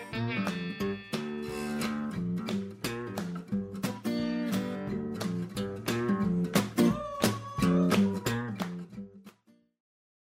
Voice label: Coffee Voiceover